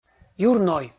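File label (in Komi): "юрной" Komi, male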